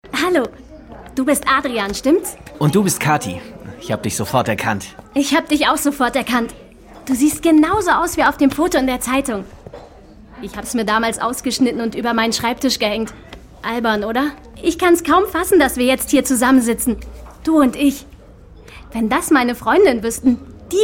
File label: female german commercial; ideasonora